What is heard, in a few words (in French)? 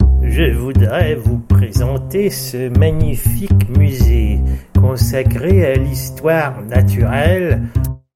French; Histoire Naturelle